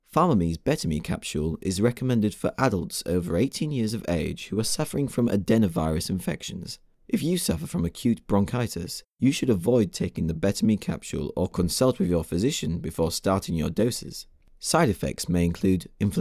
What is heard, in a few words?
Accent RP; Pharma Me